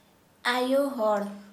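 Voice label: woman, Santali, female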